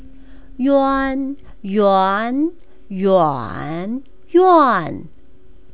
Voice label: "yuan", Chinese pronunciation